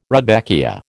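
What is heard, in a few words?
"rudbeckia", American English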